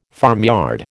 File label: "farmyard", American